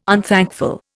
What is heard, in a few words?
"unthankful", Indian